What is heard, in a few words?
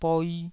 "boi2", taiwanese Syllables